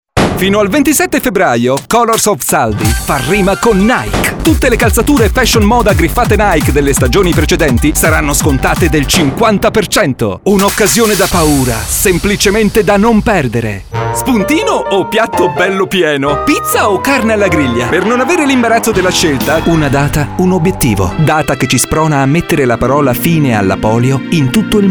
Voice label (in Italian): speaker maschile